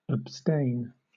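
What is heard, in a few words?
"abstain", British English; pronunciation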